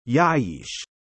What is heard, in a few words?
male; يَعِيشُ; ヤイーシュ; Arabic